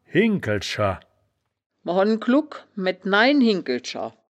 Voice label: "hinkel scher", wittlich

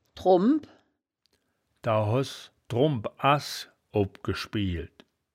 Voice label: "drummbp", woerterbuch, Wittlich

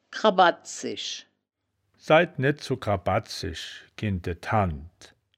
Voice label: "krabad zisch", wittlich

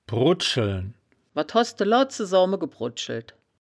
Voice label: "brudscheln", wittlicher

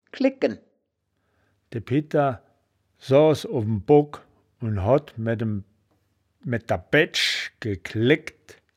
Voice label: "klegken", wittlich

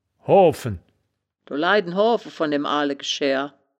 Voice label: "hoafen", wittlich; das wittlicher woerterbuch; woerterbuch vertonung

